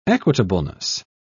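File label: "equitableness", British English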